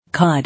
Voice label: "Cod", English

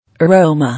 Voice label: "Aroma", English

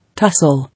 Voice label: "Tussle", English

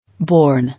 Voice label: "Born", English

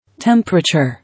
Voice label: "Temperature", English

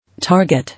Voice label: "Target", English

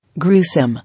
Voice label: "Gruesome", English

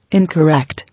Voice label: "Incorrect", English

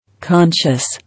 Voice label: "Conscious", English

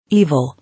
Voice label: "Evil", English

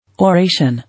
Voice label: "Oration", English

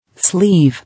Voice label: "Sleeve", English

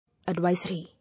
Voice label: "Advisory", English

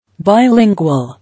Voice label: "Bilingual", English

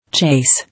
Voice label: "Chase", English

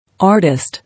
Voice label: "Artist", English

